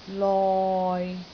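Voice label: "loi6", Cantonese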